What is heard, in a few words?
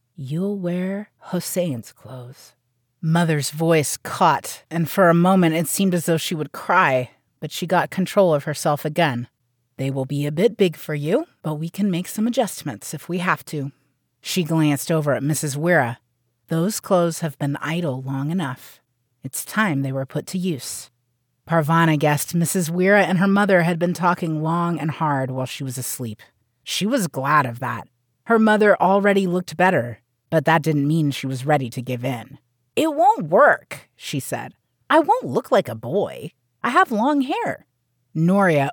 english american audiobooks